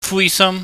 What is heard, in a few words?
"fuisam", Cantonese